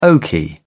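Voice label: "oaky", English, British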